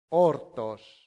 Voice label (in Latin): "hortos", latin; santillana